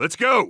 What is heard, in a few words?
radio lets go; Counter Strike Model Pack; CS:GO; phoenix coop